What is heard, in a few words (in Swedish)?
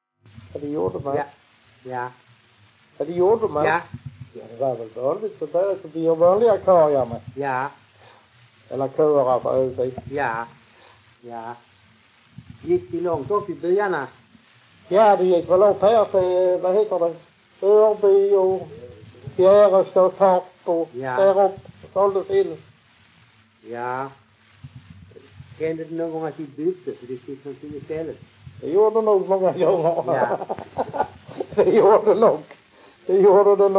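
Raus Skåne, dialekter svenska sagor; Folkminnen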